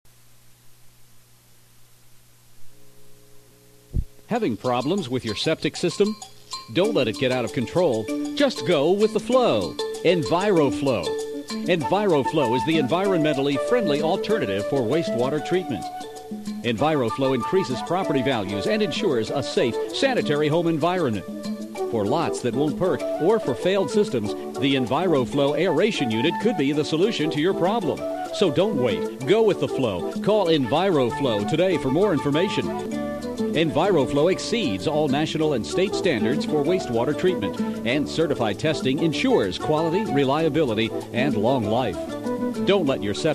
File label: commercial; enviro-flo